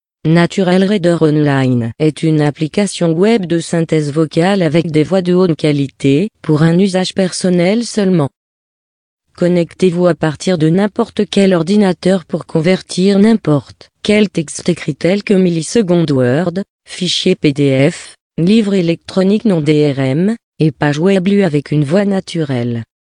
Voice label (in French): exemple de texte lu avec NaturalReader